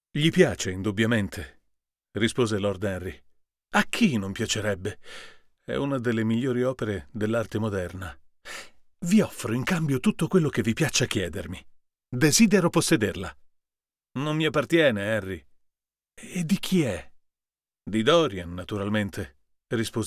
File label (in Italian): U87AI per Confronto NT1